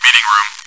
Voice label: chitchat, bot, voice commands; svencoop